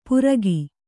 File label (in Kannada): "ಪುರಗಿ", Kannada